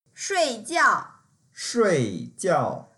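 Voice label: "shui4jiao4", Mandarin Chinese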